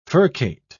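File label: "furcate", British English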